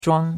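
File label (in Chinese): "zhuang1" Chinese; Cours de Chinois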